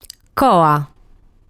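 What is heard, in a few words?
"Coa", Sardinian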